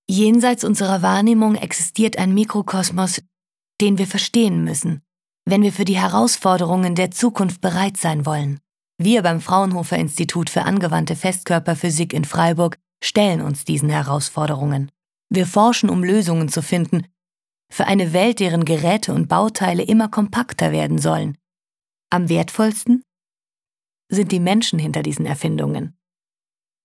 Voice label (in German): Imagefilm; Fraunhofer